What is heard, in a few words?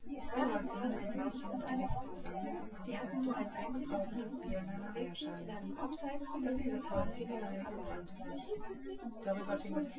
female; SiSEC08; SiSEC underdetermined